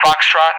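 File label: "Foxtrot", English; Alphabet; DCS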